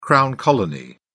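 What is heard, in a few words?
"crown colony", British English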